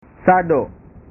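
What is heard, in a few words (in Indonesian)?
"sado", Indonesian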